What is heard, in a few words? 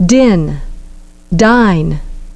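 "din" "dine", English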